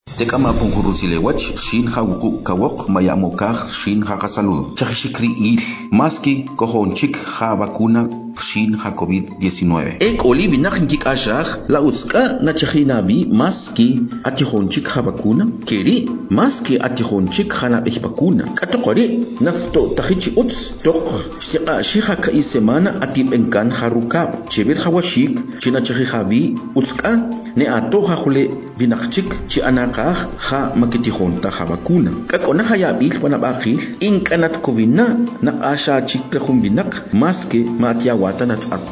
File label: Spot Tzutujil